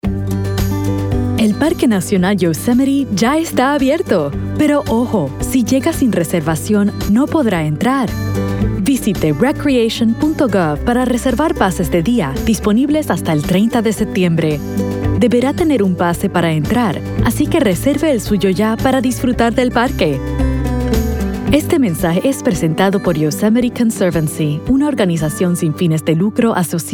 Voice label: Spanish